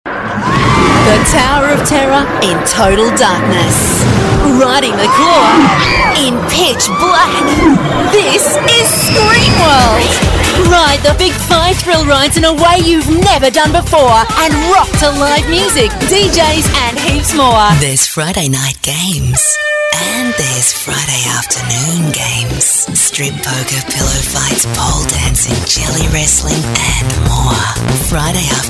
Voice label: female talent retail